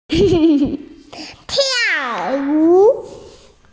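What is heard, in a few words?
"dance", Mandarin Chinese, female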